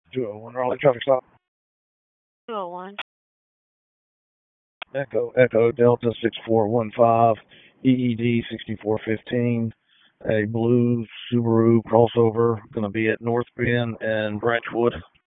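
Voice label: Police Northeast District Dispatch; Raleigh Police Northeast District Disp; wake p25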